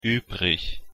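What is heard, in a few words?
"übrig", German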